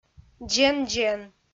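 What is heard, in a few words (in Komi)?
"дзен-дзен", Komi, female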